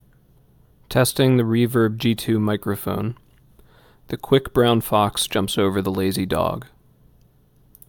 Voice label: Reverb G2 mic; HTC Vive Pro 2